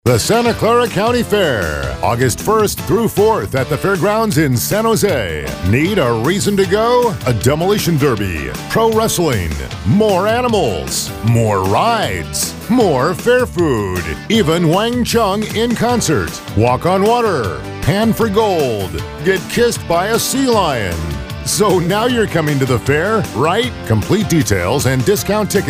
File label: radio; Pre Fair